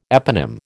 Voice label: "eponym", American